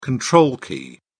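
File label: "control key", British English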